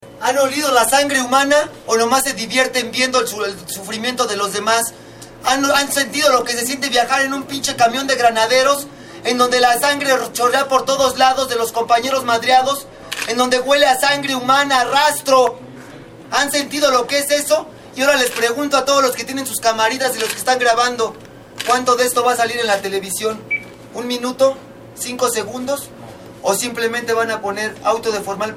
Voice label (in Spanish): hombre; presas del penal de santiaguito; contra medias